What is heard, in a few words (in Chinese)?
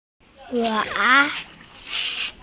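"yu2wang3", Chinese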